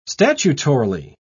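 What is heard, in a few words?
"statutorily", British English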